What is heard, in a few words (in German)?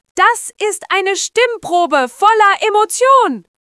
Schreien, stimmen; moods